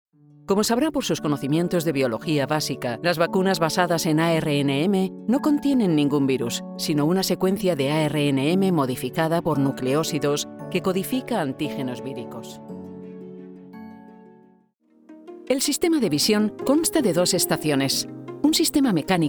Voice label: MEDICAL TRAININGS 3 VOICES